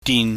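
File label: "din", Cantonese, low tone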